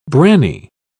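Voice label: "branny", British English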